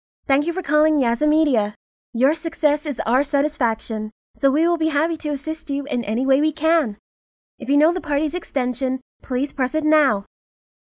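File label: American interactive voice response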